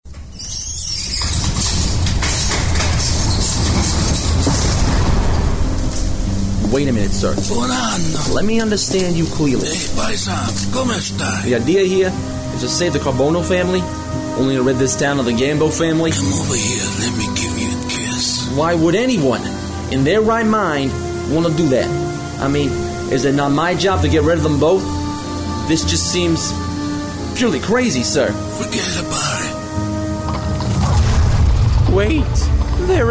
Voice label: character